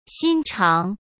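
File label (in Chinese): "心肠", Mandarin Chinese; hsk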